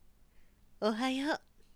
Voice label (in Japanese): 女教師